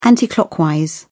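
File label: "anticlockwise", English, British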